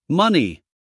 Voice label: "money", US male

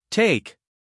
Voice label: "take" us male

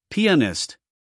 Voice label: "pianist", US male; everyone-can-use-english

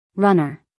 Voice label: "runner", us female

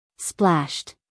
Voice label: "splashed", us female

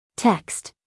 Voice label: "text", us female

